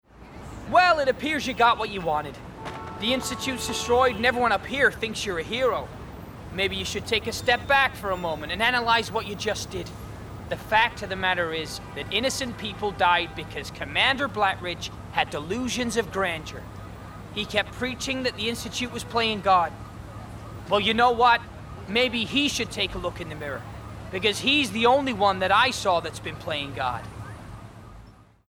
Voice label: Gaming US Scientist

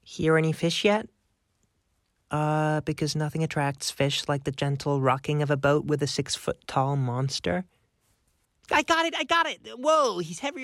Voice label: Character Reel

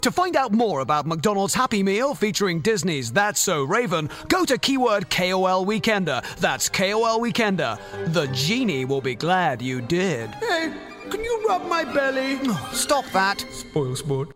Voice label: Toy Give Away Commercial; McDonalds

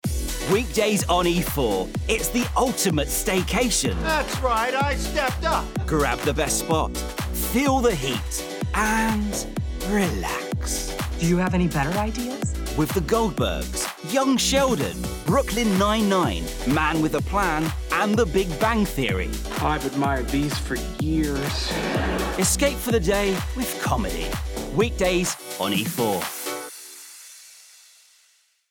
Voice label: Promo; E4

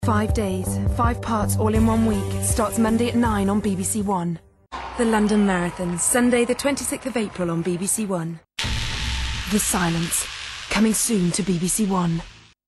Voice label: promo

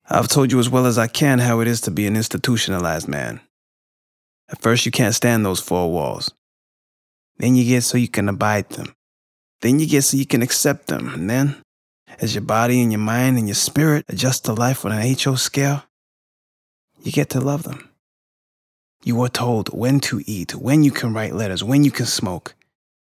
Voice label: American; 4 Walls

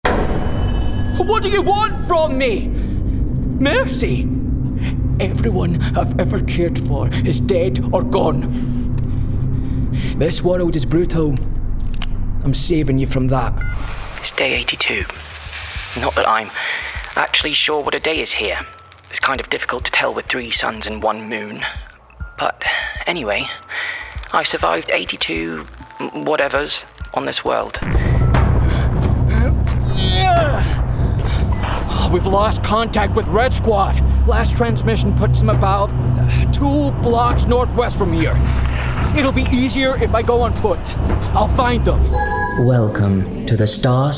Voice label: Gaming Character; Gaming/Character Showreel

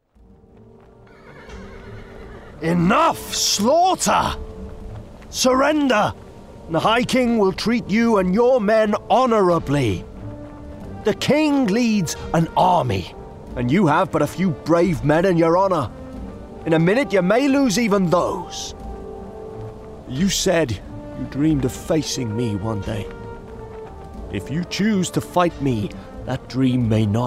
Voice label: Character Knight RP

